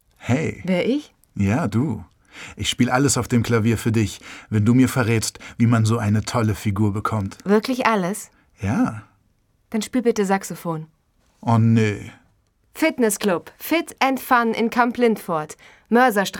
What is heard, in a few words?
Commercial Dialog